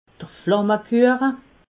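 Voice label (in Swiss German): "flammekuacha", Alsatian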